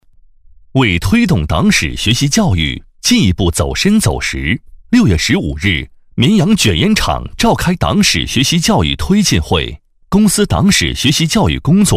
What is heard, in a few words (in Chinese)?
男 新闻 会议